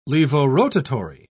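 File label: "levorotary", British English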